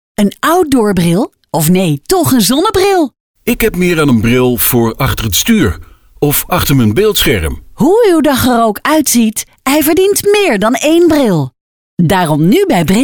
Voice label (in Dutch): radiospot